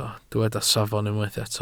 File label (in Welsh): "safon", Welsh